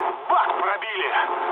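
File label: tank damaged, mood low, Russian; War Thunder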